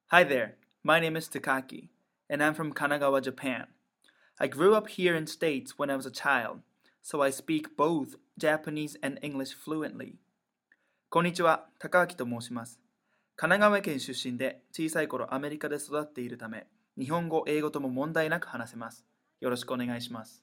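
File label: Japanese bilingual English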